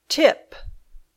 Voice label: "tip", English